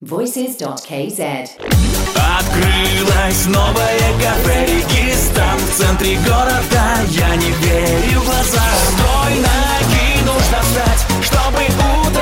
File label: ru vocal